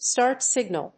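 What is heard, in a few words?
"START SIGNAL", English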